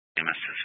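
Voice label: County Fire, Scanner audio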